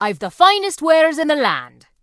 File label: Dwarf Female Guard NPC Vendor